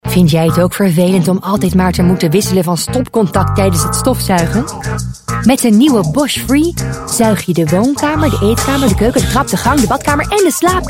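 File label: Dutch, female, commercial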